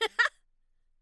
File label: Emote Positive; Mako